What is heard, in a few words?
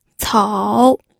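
"cou", pinyin, third tone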